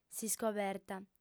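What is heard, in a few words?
"s'iscoberta", Sardinian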